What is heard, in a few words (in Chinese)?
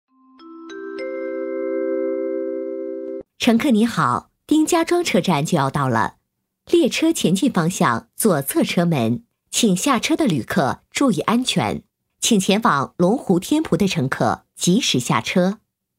女 多媒体 广播提示 报站